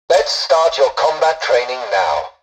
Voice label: tutorial dialogue, english; War Thunder